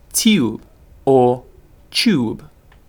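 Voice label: "tube", British English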